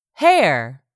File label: "HAIR", english; 3000 words